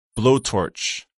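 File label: "blowtorch", English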